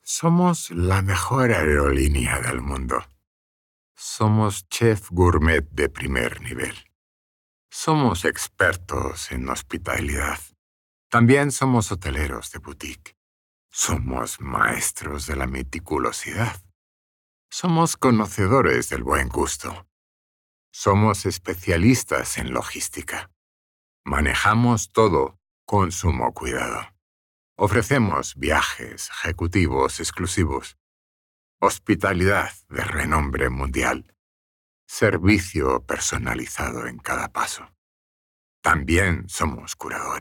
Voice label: spanish radio imaging, spanish voice actors